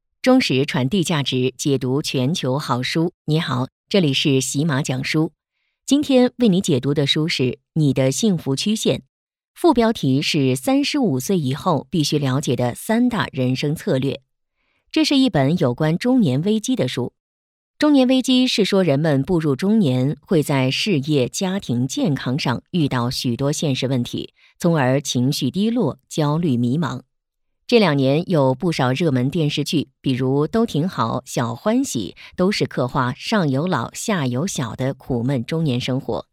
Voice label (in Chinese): 有声书; 喜马幸福曲线